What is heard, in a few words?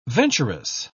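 "venturous", British English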